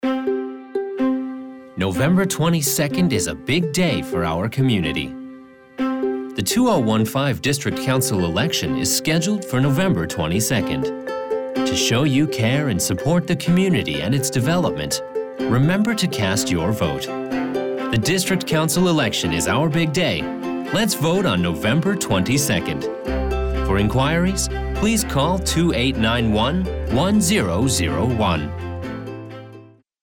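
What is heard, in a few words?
general English radio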